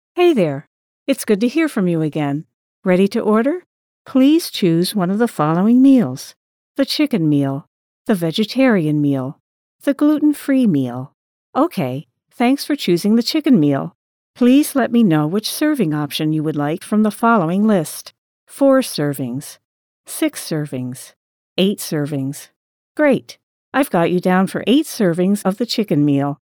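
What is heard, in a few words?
Voice Assistant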